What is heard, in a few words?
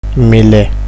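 "mile", Nigerian Pidgin, male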